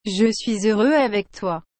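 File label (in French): "Je suis heureux avec toi", French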